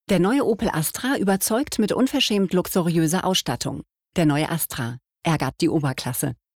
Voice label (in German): Casting Werbung; Opel